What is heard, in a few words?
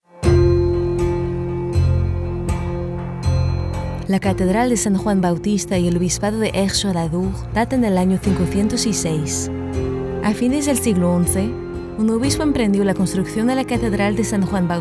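french female documentary, Parisian French Female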